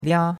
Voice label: "lia1", chinois; Cours-de-Chinois